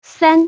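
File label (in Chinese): "三" Chinese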